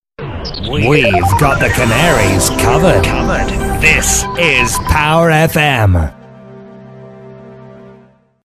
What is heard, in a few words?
male; canaries covered; jingles